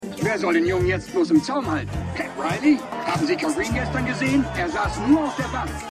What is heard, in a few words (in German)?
Sportkommentator; Winning Time